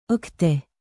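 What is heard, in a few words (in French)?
"octet", French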